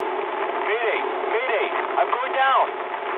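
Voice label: English aircraft destroyed mood med; War Thunder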